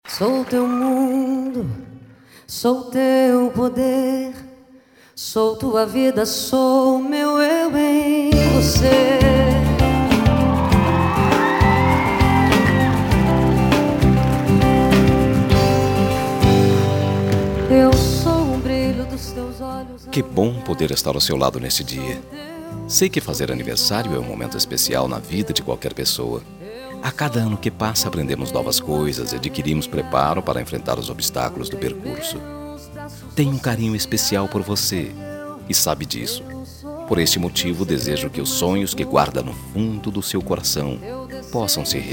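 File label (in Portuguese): aniversario romantico suave masculino, telemensagem; telemensagem romantica suave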